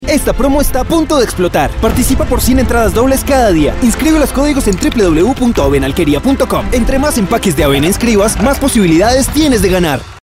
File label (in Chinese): 外籍西语男 激情语调